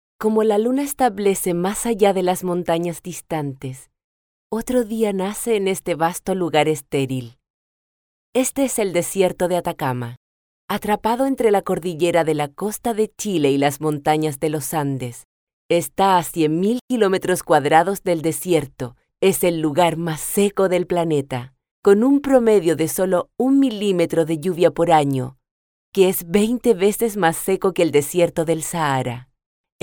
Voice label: Documentary Spanish Accent; Atacama Desert